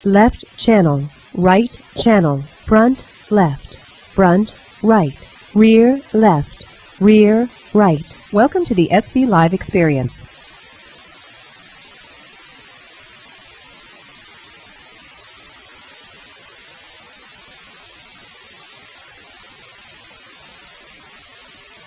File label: female white noise